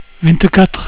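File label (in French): "vingt quatre", French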